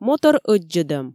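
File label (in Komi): "мотор ӧддзӧдӧм", Komi, female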